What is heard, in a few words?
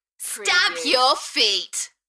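UK female, Enthusiastic, DJ vocals; beanstalk-audio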